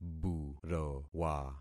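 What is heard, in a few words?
"burowa", concatenated syllables; Affixing experiment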